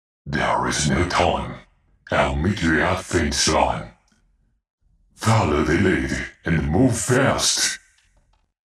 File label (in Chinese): 英文角色; 擎天大柱子